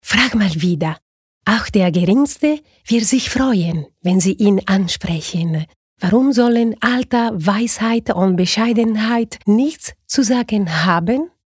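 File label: advert, gentle; Werbung; Sanft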